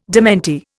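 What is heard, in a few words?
"démenti", Indian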